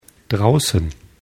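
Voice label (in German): "draussen", German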